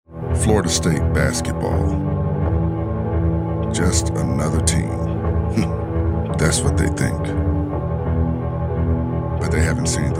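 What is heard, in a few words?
Narration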